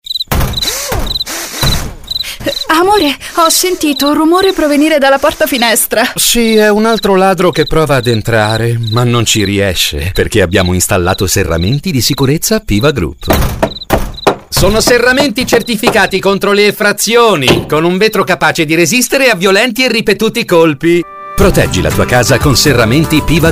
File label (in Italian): Spot radio; piva group